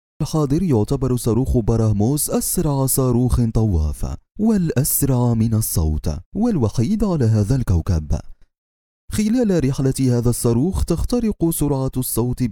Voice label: 阿拉伯语男 外语 小语种 年轻

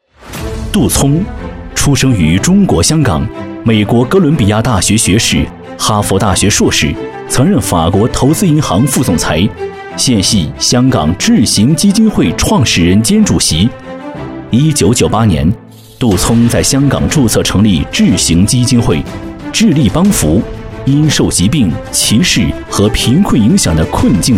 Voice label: 男国 宣传片 企业 基金会; 智行基金会

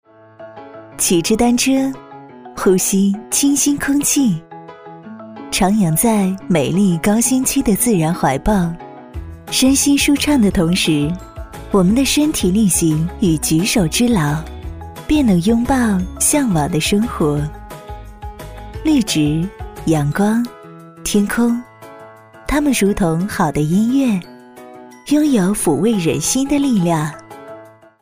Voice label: 女 宣传片 旅游 讲述 温柔

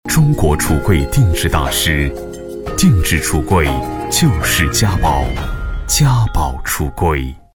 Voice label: 广告 家居家电 厨柜 广告 大气; 嘉宝厨柜央视一套广告

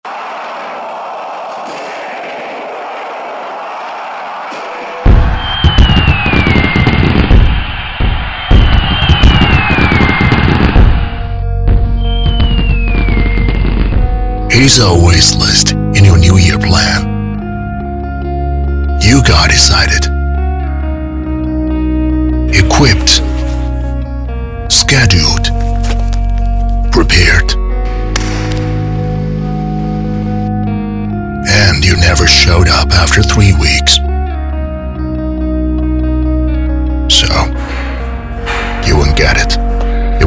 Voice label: 男英 外语 国内英语 励志独白; 新样励志独白

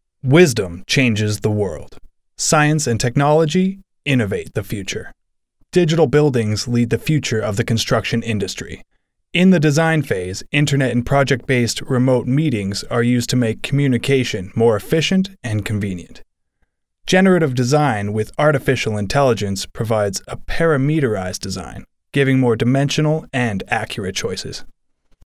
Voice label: 外籍男英 外语 美式英语 公司简介 稳重

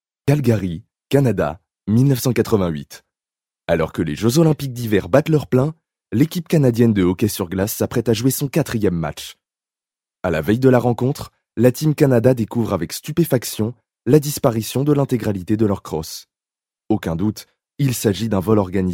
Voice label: 法语男, 外语, 小语种

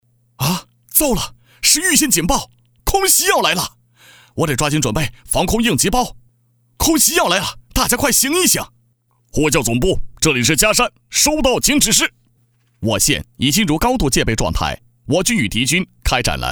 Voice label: 动画 角色 空袭, 男国

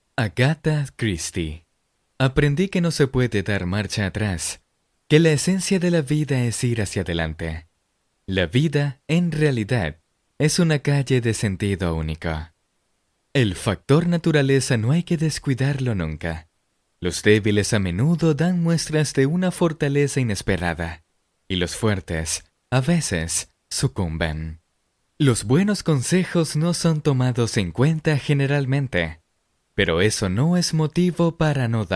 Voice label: 西班牙语男, 外语

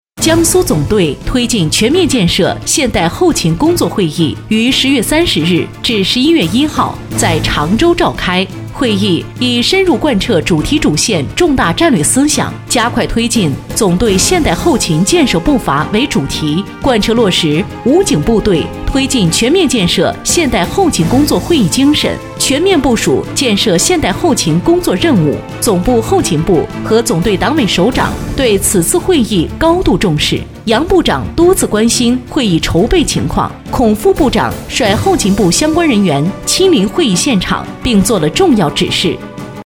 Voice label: 专题 新闻, 女; 武警

